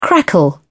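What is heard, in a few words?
"crackle", British English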